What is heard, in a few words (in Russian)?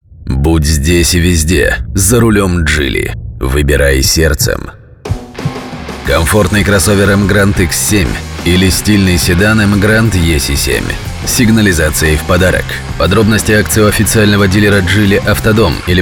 Реклама радио - автомобили; Geely